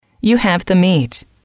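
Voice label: you have the meat, English, female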